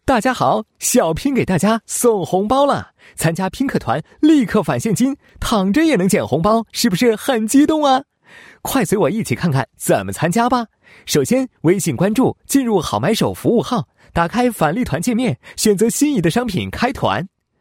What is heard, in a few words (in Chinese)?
男 宣传; 飞碟说; 拼客团立刻返现金 返利团宣传